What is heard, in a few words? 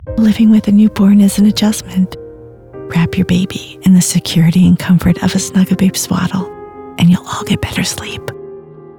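caring hushed, Professional Female Voice Actor; Snugababe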